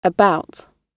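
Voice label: "about", British English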